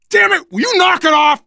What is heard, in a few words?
friendly fire, voice, biker